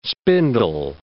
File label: "spindle", english